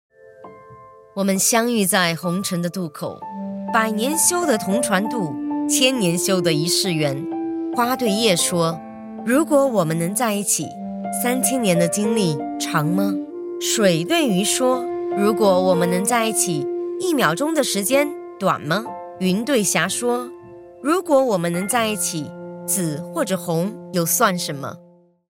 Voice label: chinese mandarin audiobooks